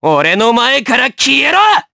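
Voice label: Skill, Japanese; Neraxis; kingsraid; jp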